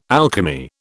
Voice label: "alchemy", British

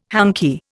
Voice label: "hanky", Indian

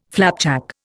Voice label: "flapjack", Indian

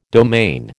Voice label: "domain", American

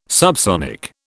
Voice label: "subsonic", British

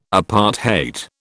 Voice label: "apartheid", British

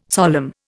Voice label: "solemn", Indian

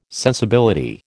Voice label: "sensibility", American

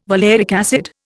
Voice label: "valeric acid", Indian

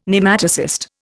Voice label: "Nematocyst", Indian

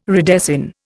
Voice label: "redesign", Indian

